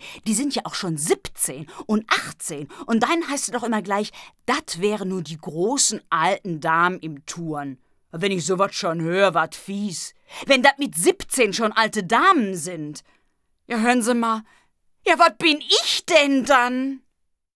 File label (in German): Dialekt, Gesund is datt nich